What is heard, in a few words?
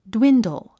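"dwindle", English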